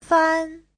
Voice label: "fan1", chinese

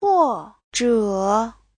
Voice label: "huo4 zhe3", chinese

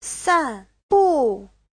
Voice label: "san4bu4", Chinese; Giáo trình Hán ngữ

